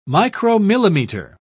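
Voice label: "micromillimeter", British English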